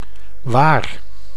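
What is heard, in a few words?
"waar", Dutch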